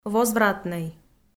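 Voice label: "возвратнӧй", Komi, female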